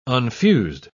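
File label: "unfused", English, uk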